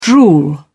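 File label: "drool", British English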